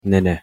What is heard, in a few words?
"nene", Turkish; alphabet